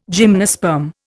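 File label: "gymnosperm", Indian English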